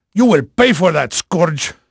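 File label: Portuguese male, voice; Pirates Of The Caribbean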